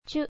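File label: "chu", Japanese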